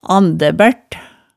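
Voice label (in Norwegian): "andæbært", Hemsedal dialect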